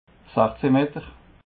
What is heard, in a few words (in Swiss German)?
"sachzehnmeter", alsace